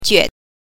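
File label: "jue", Mandarin Chinese pinyin, neutral tone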